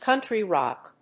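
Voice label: "country rock", English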